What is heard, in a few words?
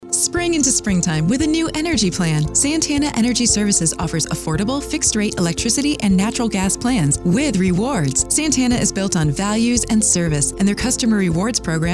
Female; Santana Energy